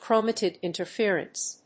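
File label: "chromatid interference", English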